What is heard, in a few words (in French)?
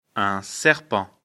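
"serpent", French; afrikalan; lecture fr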